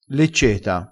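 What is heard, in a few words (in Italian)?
"lecceta", vocabolario dialettale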